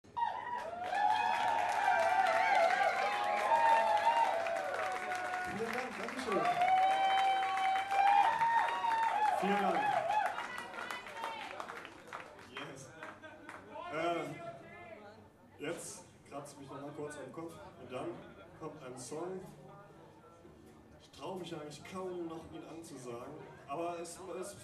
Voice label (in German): ansage